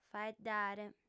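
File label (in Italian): "faeddare", lingua sarda, female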